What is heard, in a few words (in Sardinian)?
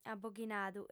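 "aboghinadu", lingua sarda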